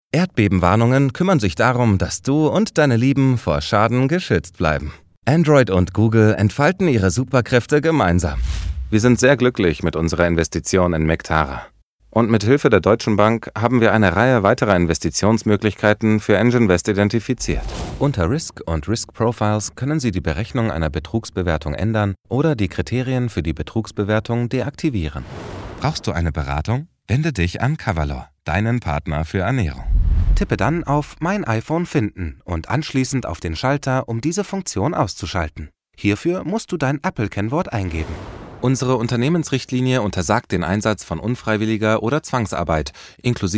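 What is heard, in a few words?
corporate, German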